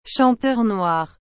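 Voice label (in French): "chanteur noir", French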